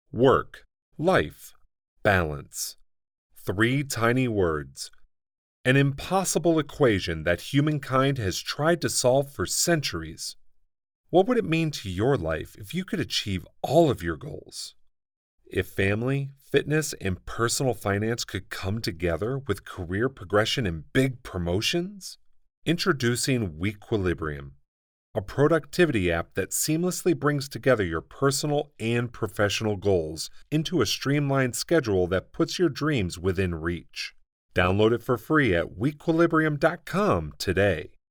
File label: Pharmaceutical Ad Sample